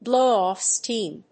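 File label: "blow off steam", English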